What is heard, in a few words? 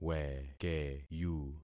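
"wekeyu", concatenated syllables; Affixing experiment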